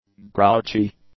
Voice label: "grouchy", english; adjectives

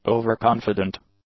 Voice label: "overconfident", English; adjectives

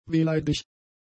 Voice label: "wehleidig", German; adjectives

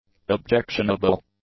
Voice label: "objectionable", english; adjectives

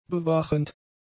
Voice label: "bewachend", German; adjectives

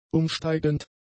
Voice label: "umsteigend", German; adjectives

